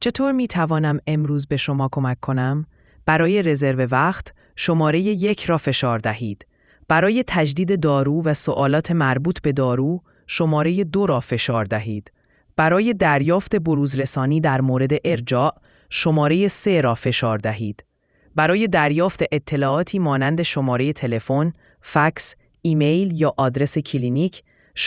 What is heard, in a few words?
question persian